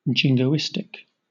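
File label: "jingoistic", British English; pronunciations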